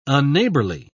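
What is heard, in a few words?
"unneighborly", British English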